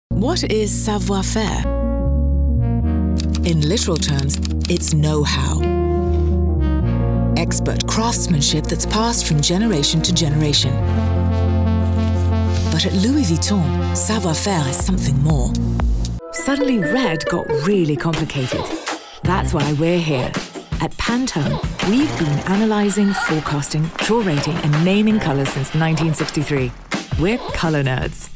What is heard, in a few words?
British Commercial